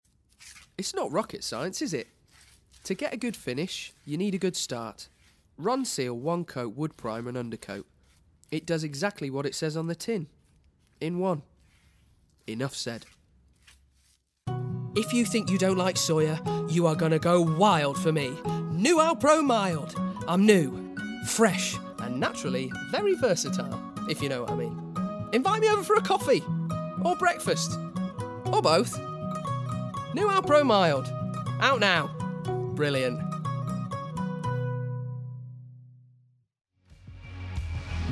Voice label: Commercial Reel

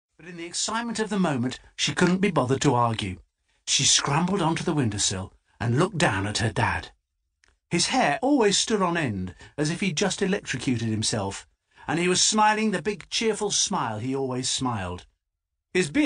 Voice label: AUDIOBOOK; TILLY AND THE TIME MACHINE

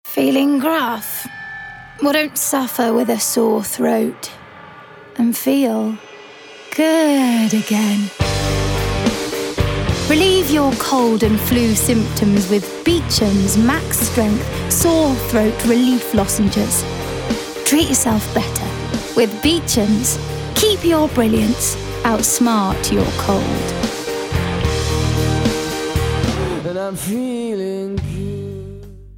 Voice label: SORE THROAT

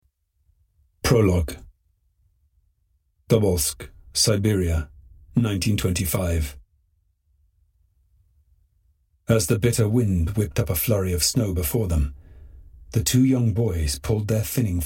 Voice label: Audiobook; Atlas-The-Story-of-Pa-Salt

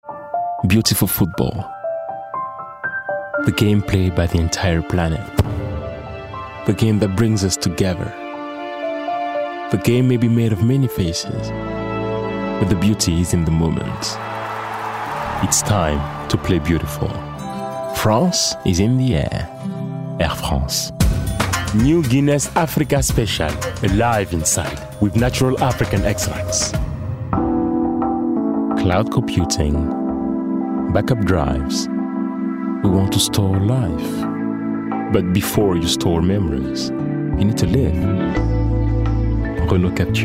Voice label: Commercial Voicereel

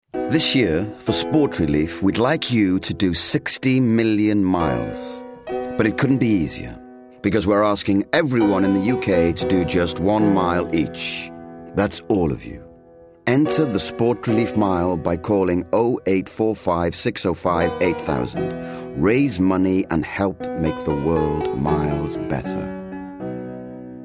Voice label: STRAIGHT SENSITIVE APPEALING; SPORT RELIEF

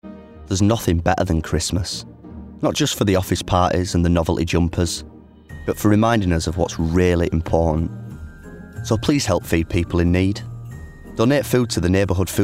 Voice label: COMMERCIAL Foodbank; Tesco Foodbank

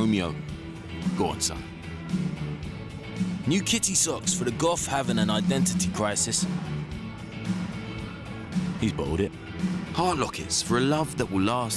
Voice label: Christmas Advert; eBay; Survive The Disco

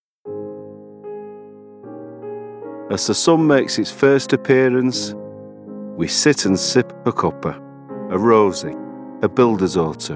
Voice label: Tea commercial; Yorkshire Tea

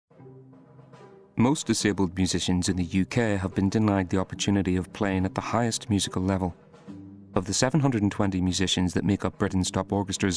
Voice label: narrative; British Paraorchestra

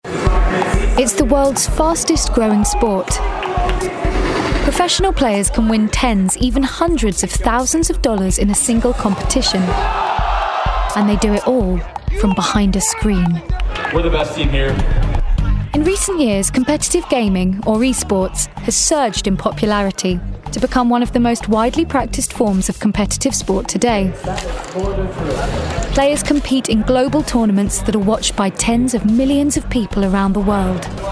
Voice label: Narrative Voice reel

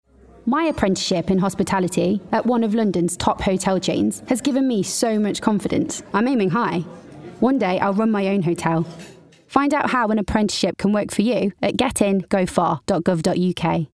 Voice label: COMMERCIAL Apprenticeships

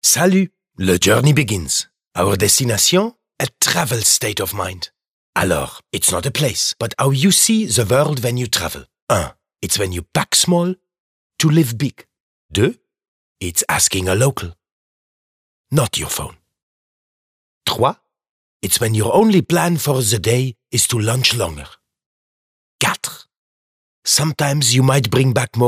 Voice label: English French; Eurostar

